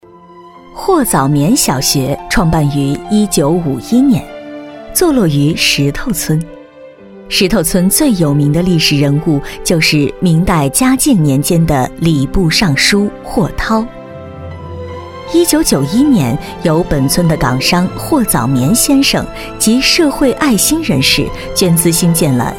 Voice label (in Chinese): 女 专题; 霍藻棉小学